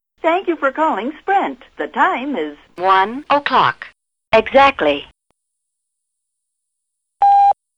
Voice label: audio USA; TIM 2015; self contained time of day announcement device